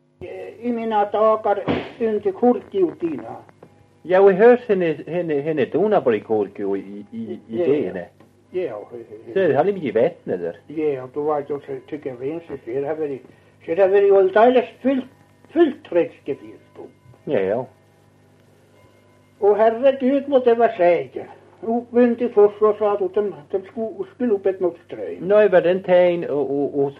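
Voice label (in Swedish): Överkalix Norrbotten, dialekter; Folkminnen; Svenska sagor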